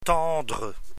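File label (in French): "tendre", French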